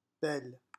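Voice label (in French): "belle", French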